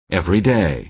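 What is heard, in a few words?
"everyday", American English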